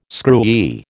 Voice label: "screwy", American

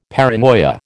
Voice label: "paranoia", American

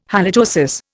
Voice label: "halitosis", Indian

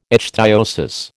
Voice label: "ichthyosis", American

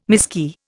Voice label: "miskey", Indian

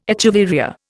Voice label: "echeveria", Indian

